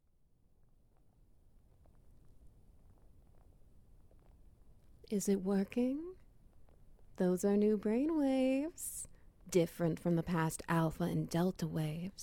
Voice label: Ambience SFX Wet Noises; Rescued by a Facehugger